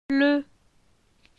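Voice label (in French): "Ple", French; phonetique; la syllabe e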